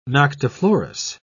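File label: "noctiflorous", British English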